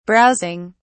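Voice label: "browsing", British English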